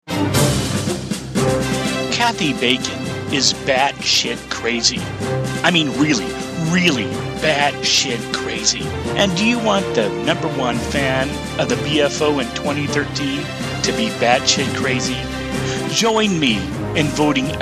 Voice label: political attack robocall

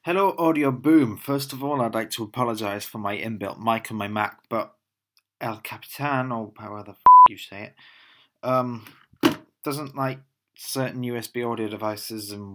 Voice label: siri voices in voiceover

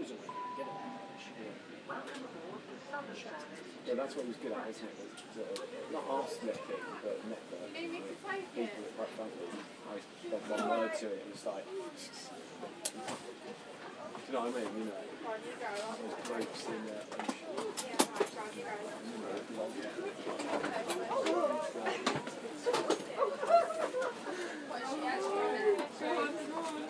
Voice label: random conversations aboard train to brighton; uksm

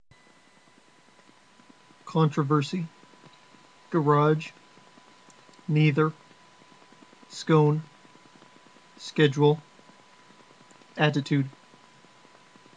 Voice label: iowa usa male

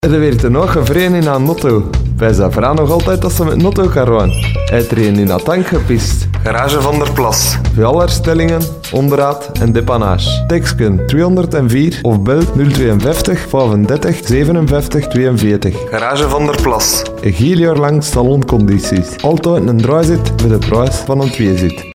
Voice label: reklam; garage van der plas

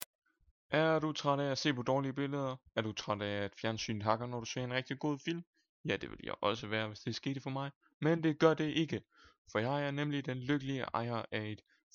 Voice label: radio jingle; Samsung 40 LED TV til matematik

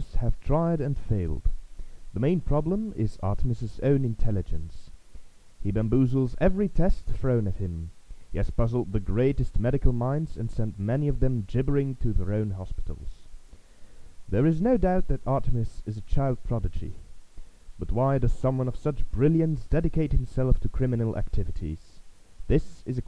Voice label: audiobook prologue

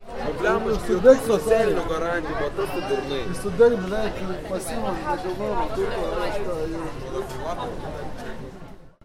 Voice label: cafe banter

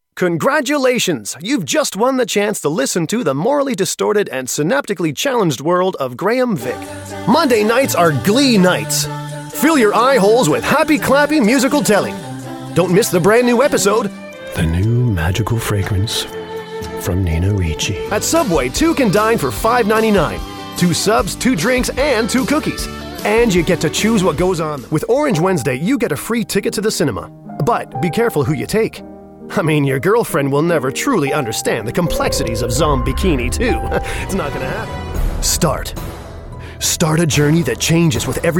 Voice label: commercials

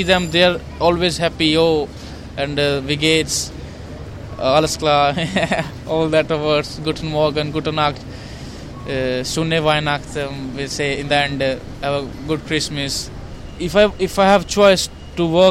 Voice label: voxpop friendly

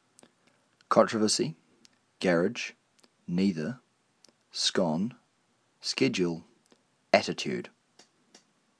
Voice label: Dunedin New Zealand male

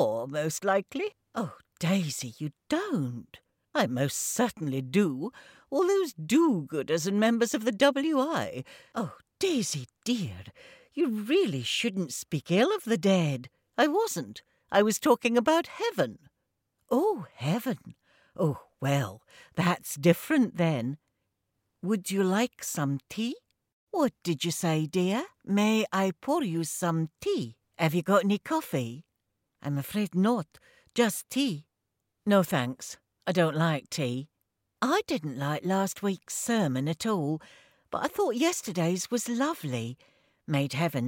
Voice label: character voices

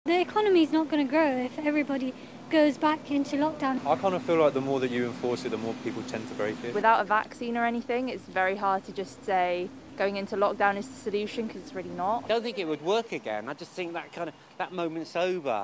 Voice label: vox pop

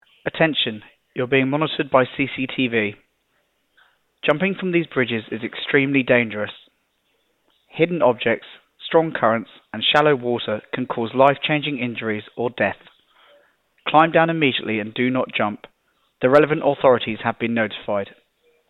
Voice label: loudspeaker warning at teddington lock

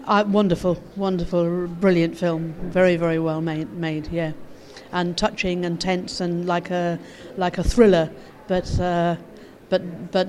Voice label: vox pop

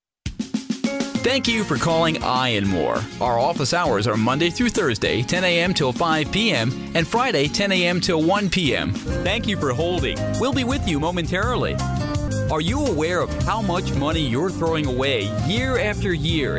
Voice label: voicemail samples

